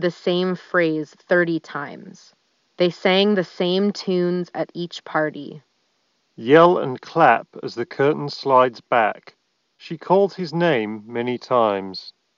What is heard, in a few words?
Shure Aonic Free ideal microphone sample